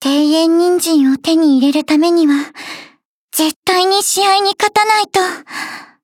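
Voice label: start, Japanese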